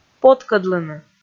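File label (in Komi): "поткӧдлыны" Komi, female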